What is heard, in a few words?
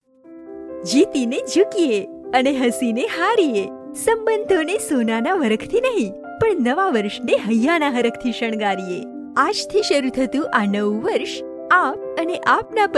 GUJ, voice